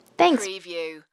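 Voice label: Woman Thanks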